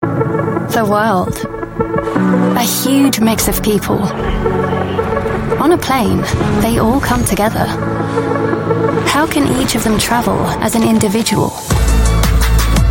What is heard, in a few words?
UK English ad; Lufthansa